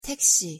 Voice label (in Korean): "택시" Korean; pronunciation